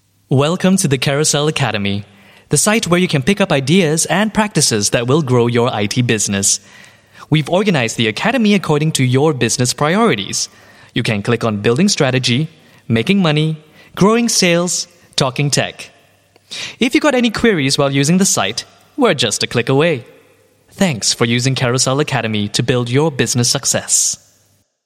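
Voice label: Corporate Academy, English Singaporean Male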